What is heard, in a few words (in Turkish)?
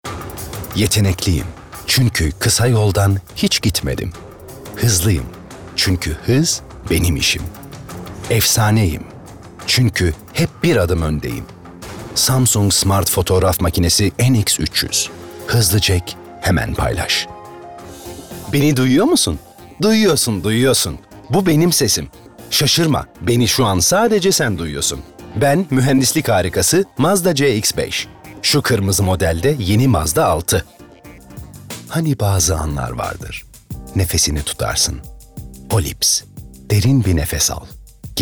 REKLAM